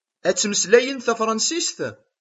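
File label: "S tefṛansist i ad ttmeslayent?", Kabyle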